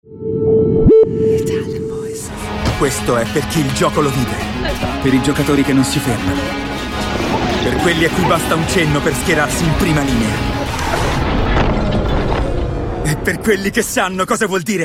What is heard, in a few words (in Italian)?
ITALIAN VOICES Acting, male voices